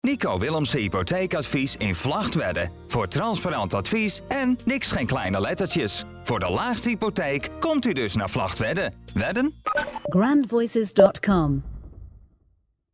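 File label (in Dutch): accent male GRONINGS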